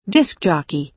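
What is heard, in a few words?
"disc jockey", American English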